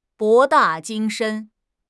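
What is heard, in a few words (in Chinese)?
"博大精深", Chinese; HSK6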